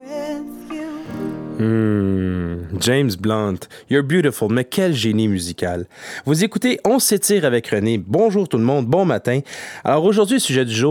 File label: Demo Radio